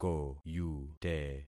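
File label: "koyute", concatenated syllables; Affixing experiment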